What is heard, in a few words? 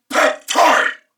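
Throw Grenade Male, en; Battle; Corpus Venus; Warframe; CorpusVenusMaleB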